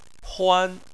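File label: "huan", Chinese, first tone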